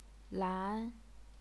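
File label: "lan", Chinese, second tone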